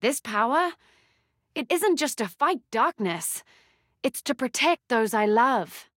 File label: vo, en-us; kaisa